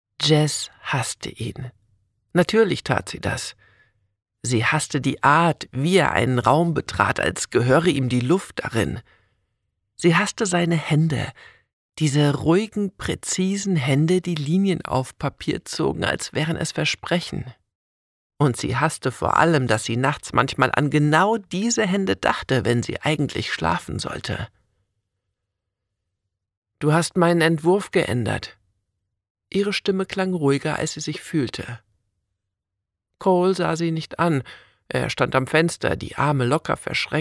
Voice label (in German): Hoerprobe, sprecher